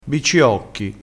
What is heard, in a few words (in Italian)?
"bicciocchi", dialettale